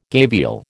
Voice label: "gavial", American